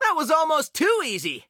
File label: victory, en; cookie run